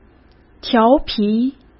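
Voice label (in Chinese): "调皮", Mandarin Chinese; chinese-flashcards; hsk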